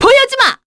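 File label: Vox Skill, Korean; Naila; kingsraid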